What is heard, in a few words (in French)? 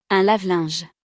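"Un lave linge", French; vocabulaire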